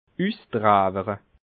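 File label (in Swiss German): "usdrewere", Alsatian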